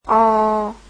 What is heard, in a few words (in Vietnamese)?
"o", Vietnamese alphabet